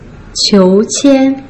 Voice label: "qiu2 qian1", Putonghua